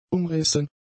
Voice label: "umrissen", German; adjectives